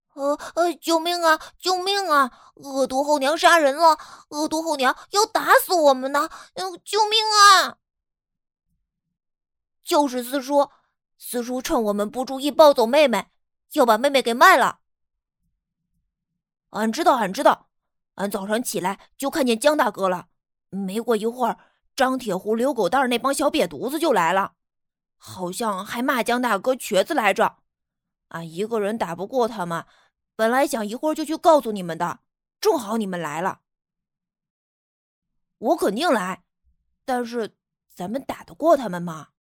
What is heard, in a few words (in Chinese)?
男童角色演绎